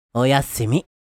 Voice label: Good night anime boy character, japanese voice over